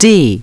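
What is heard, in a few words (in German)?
"D geschlossen", German; DISTEFORA Navigation; Mobile Navigator Notebook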